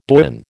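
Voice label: "doyen", American English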